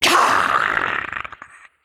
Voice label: Female Naga Attack